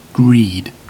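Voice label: "greed", British English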